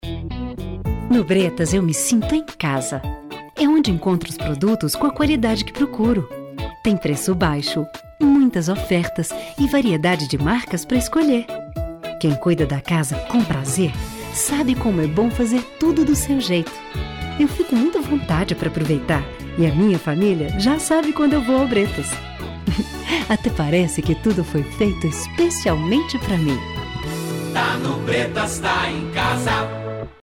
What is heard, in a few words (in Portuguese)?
Supermercado spot; Bretas